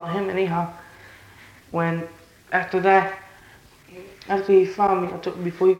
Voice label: Conversation with 15 year old male New York New York; american english dialect